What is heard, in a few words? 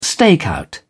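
"stakeout", British English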